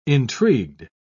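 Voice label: "intrigued", English, uk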